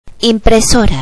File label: "printer", Spanish